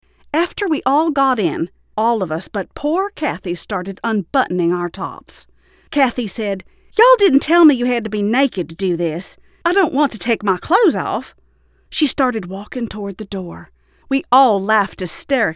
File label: POV Southern 1st Person Dialogue